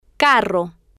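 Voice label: "carro", Spanish, female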